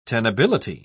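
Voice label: "tenability", British English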